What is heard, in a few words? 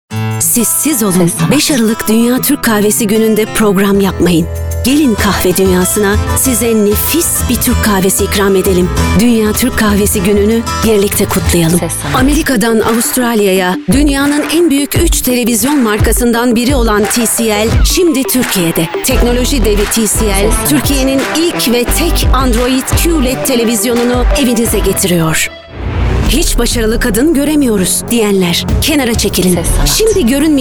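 Turkish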